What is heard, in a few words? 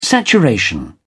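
"saturation", British English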